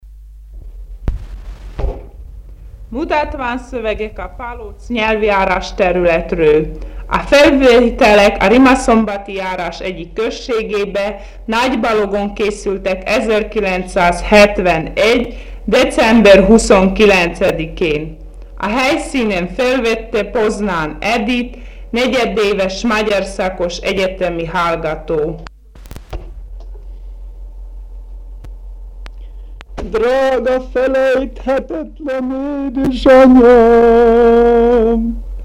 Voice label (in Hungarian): nyelvjaras gyujtesek